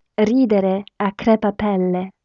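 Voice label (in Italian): ridere a crepapelle, Italian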